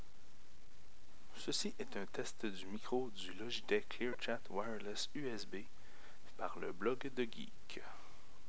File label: logitech clearchat pc wireless